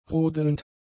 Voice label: "rodelnd", German; adjectives